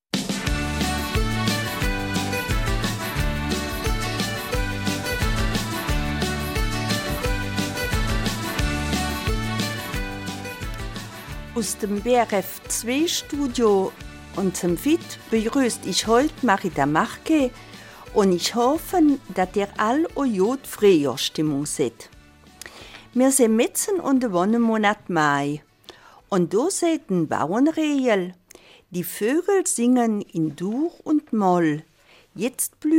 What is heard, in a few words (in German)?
Eifeler Mundart; Erinnerungen an alte Zeiten